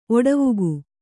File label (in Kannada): "ಓಟವಿಕ್ಕು", kannada